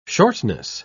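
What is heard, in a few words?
"shortness", British English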